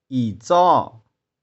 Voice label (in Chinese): "i2tsau6", 玉環